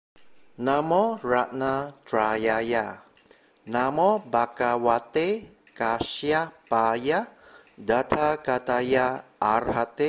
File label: Mantra